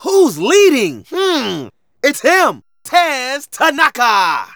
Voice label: Narration; Ollie King

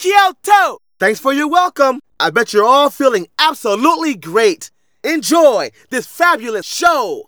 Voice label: Narration; Ollie King

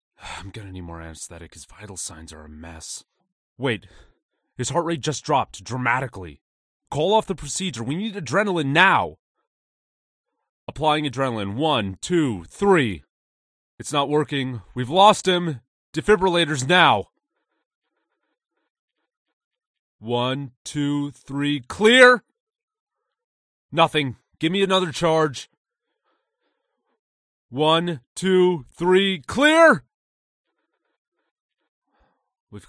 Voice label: Voice Acting, surgeon raw; badending; Five Nights at Ronald's

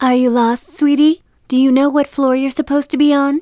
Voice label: vo nurse female meet player

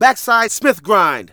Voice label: Narration; Ollie King

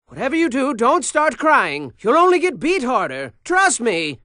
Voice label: level open, narrator voices; BattleBlock Theater

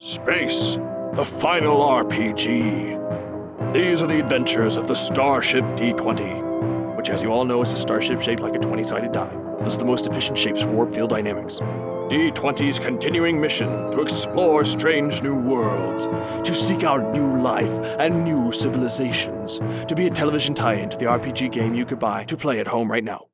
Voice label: Commercial; StarshipD20

